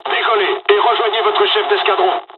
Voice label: takeoff, dialogs french; midway jpn; War Thunder

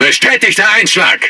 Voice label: Speech Hit Confirmation, DE; World Of Warships

